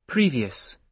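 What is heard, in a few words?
"previous", British English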